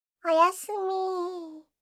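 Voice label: "oyasumii", Japanese; aisatsu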